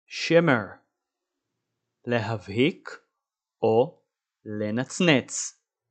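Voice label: "shimmer" English, "להבהיק לנצנץ" Hebrew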